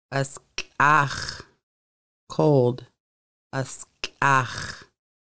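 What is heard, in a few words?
Cold, conversational Lushootseed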